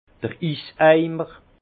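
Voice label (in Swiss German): "iseimer", Alsace Haut Rhin; vin; seau à glace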